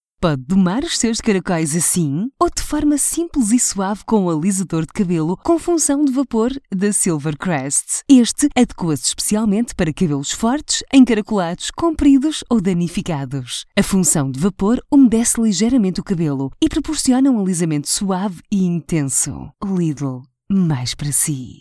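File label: Portuguese, female, narration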